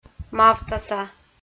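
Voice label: "мавтаса", Komi, female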